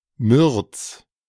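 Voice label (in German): "Mürz" German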